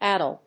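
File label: "addle", English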